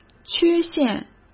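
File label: "缺陷", Mandarin Chinese; hsk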